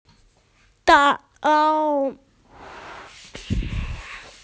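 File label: "da4lou2", Chinese, female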